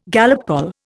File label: "Gallup poll", Indian